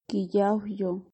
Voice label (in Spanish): "lluvioso", Náhuatl